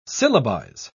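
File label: "syllabize", British English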